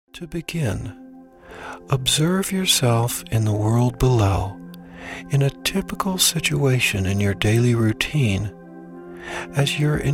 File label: positive thinking meditation